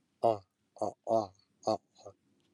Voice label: Voiced velar lateral tap

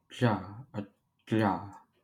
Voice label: Voiced palatal lateral flap

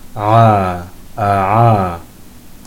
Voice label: Voiced pharyngeal approximant

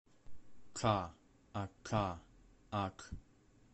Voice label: Voiceless retroflex lateral affricate

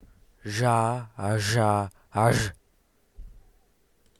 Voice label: ʎ̝, IPA

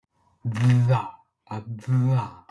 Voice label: Voiced linguolabial trill

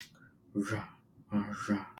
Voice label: Voiced retroflex lateral fricative

